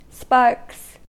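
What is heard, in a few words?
"sparks", US English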